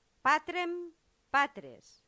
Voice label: "patrem patres", latin; santillana

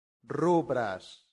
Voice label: "rubras", Latin; santillana

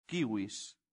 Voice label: "civis", latin; santillana

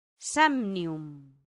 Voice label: "samnium", Latin; santillana

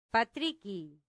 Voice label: "patricii", Latin; santillana

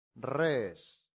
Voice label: "res", Latin; santillana

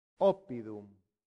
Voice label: "oppidum", latin; santillana

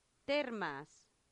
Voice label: "thermas", Latin; santillana

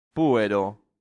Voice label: "puero", latin; santillana

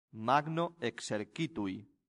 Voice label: "magno Exercitui", Latin; santillana

